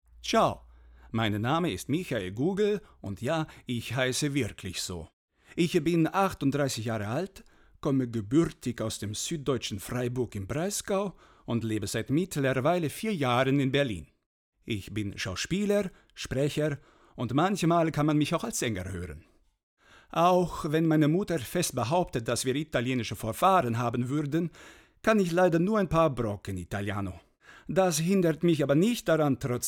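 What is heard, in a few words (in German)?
Italienischer Akzent dezenter, Imitation, German; Demo - Italienischer Akzent (Fake); LOFT VOICES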